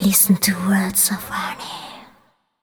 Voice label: fem spo, Vocals; Mutekki Media; Diamond Vocals 2